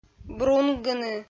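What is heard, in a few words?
"брунгыны", Komi-Zyrian, female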